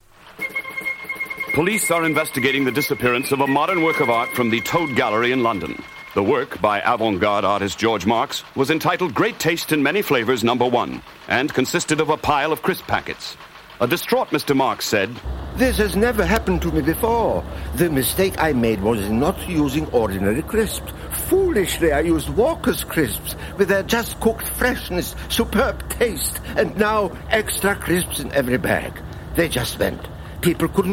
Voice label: Radio Advert; Walkers Crisps Art Gallery; Radio Hallam

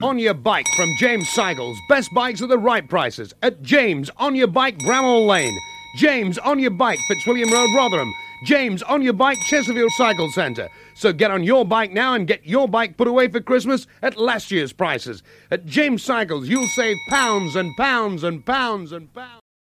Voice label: Radio Hallam Advert; James Cycles

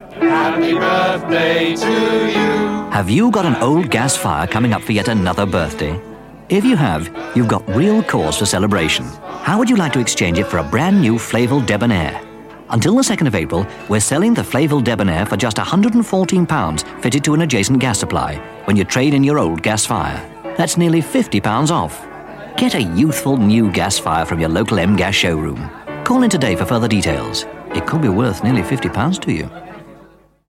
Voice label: Radio Hallam Advert; Emgas Flavel Debonair

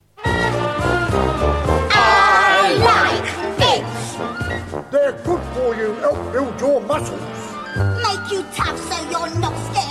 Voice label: Radio Advert, Eggs I Like Eggs; Radio Hallam

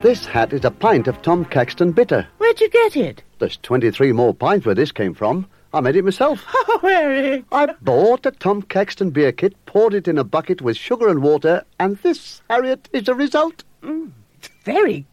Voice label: Radio Hallam Advert; Tom Caxton

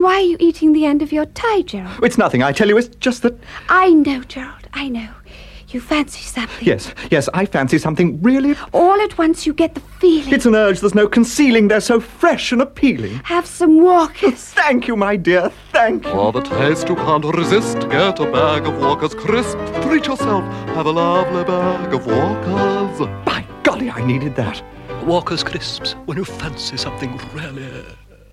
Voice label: Radio Hallam Advert; Walkers Crips Appealing

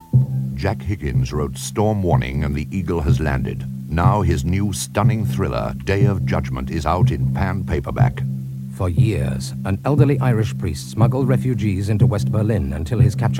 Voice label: Radio Advert, Paperbacks; Radio Hallam; Pan; Day Of Judgement

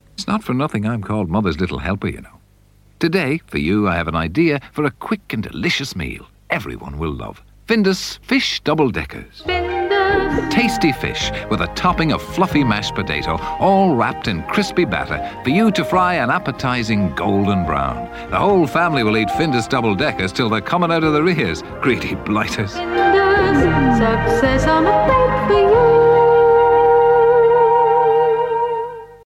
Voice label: Radio Advert, Fish; Radio Hallam; Findus Fish Double Deckers

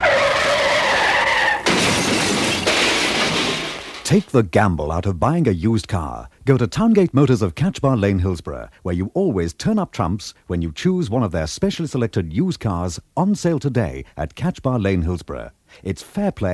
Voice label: Radio Hallam Advert; Towngate - Used Cars Test Drive

